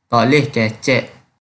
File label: Kelantan-Pattani Malay